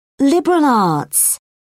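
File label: "liberal arts", British English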